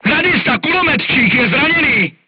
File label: voice message machine gunner wounded, crew tanks czech